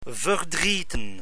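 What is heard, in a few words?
"verdrieten", Dutch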